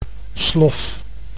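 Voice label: "slof", Dutch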